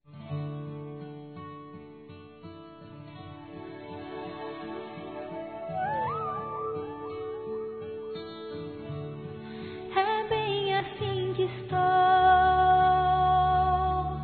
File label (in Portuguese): Namorados pra Ex Namorada, M